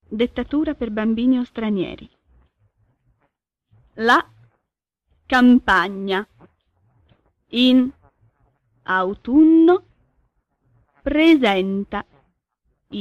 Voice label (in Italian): dettatura per bambini o stranieri